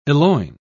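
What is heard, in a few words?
"eloign", British English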